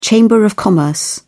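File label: "chamber of commerce", British English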